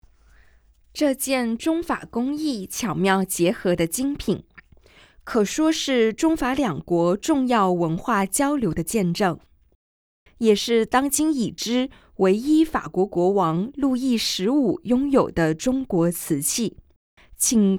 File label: Mandarin; audio guide; versailles